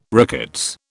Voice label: "rickets", British